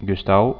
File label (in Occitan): "gustau", occitans